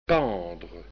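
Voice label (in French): "pendre", French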